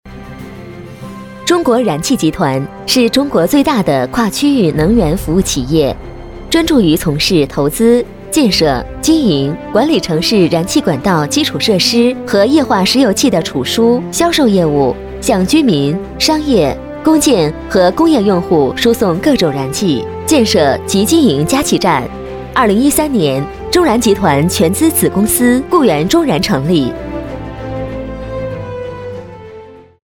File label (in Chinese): 女9-专题-燃气集团, 女; 中国